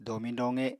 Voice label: "domiñ-doqe", Apatani